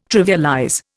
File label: "trivialize", Indian